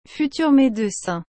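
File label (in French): "futur médecin", French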